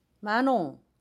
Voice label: "garlic", jeju; 마농; ma-nong; noun garlic; (Korean) 마늘